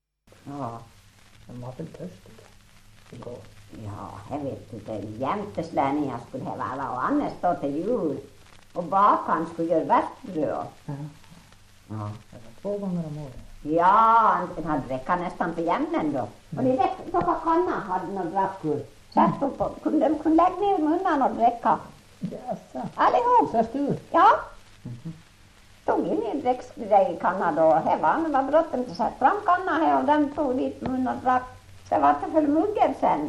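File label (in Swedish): Ål Dalarna, dialekter, svenska sagor; Folkminnen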